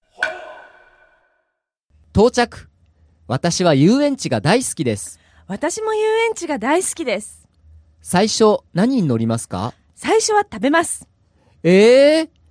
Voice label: dialog; JapanesePod101; Beginner Season 1